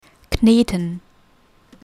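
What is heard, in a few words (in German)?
"kneten", German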